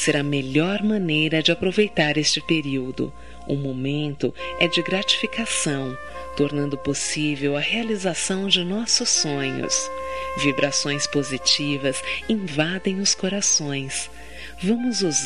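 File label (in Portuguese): Feliz Natal, female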